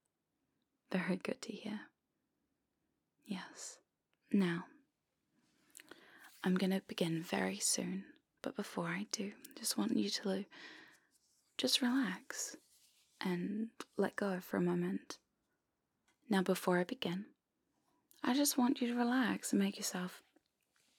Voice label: Head Massage RAW VOCAL